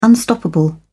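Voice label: "unstoppable", British English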